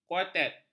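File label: "kuartet", Malay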